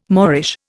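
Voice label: "moreish", Indian English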